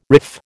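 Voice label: "riff", American English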